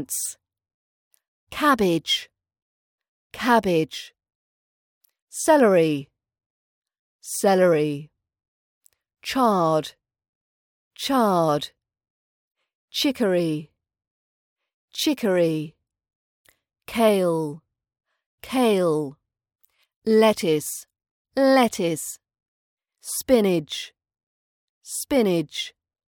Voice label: leaf vegetables british accent practice vocab